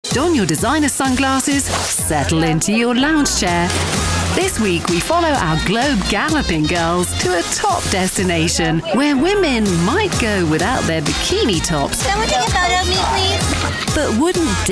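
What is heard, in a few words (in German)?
British English, Aufgeregt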